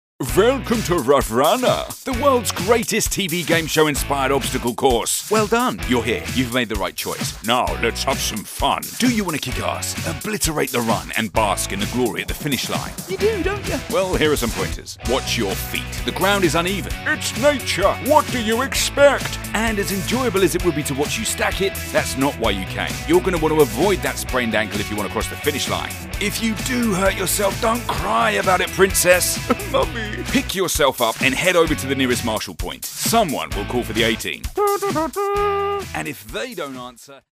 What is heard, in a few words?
Explainer Video Funny